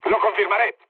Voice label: player killed enemy, mood med, speech